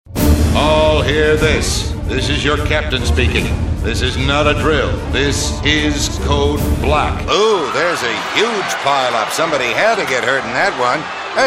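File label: animation, men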